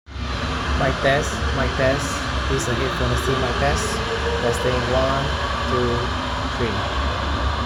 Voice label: Noisy